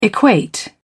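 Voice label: "equate", British English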